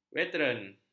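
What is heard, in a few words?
"veteran", Malay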